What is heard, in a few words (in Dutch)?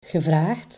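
"gevraagd", Dutch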